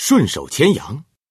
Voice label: snatch, male; FreeKill; standard cards